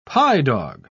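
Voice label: "pye-dog", British English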